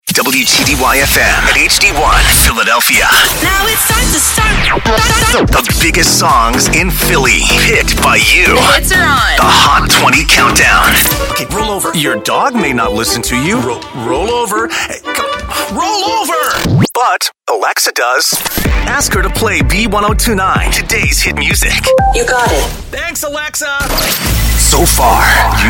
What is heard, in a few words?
radio imaging men chr